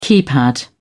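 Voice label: "keypad", British English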